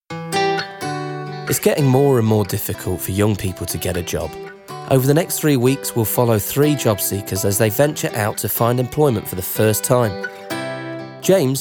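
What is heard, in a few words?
narration serious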